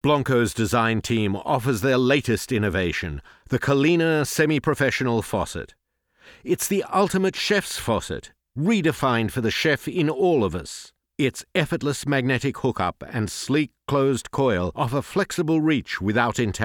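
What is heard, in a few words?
English Australian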